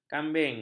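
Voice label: "kambing", Malay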